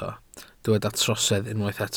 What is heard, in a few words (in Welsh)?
"trosedd", Welsh